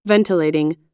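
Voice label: "ventilating", American English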